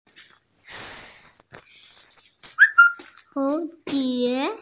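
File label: "butterfly", Mandarin Chinese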